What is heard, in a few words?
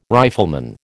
"rifleman", American